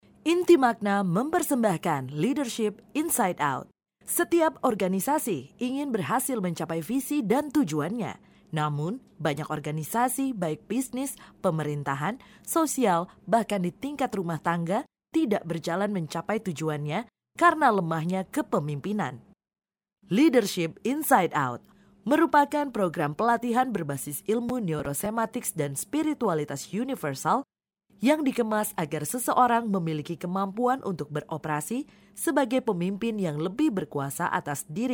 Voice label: Formal, voice is bright, conversational, friendly and clear with a sincere, warm "real-pers